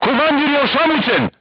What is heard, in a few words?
voice message commander contused, crew tanks serbian